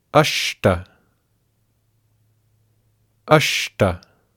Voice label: "aShTa", sanskrit; Digit

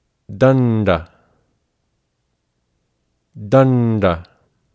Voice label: "daNDa", sanskrit

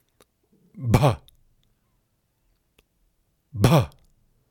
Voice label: "bha", sanskrit

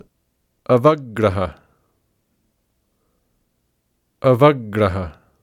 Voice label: "avagraha", sanskrit

